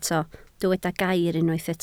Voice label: "gair", Welsh